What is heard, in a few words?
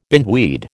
"bindweed", American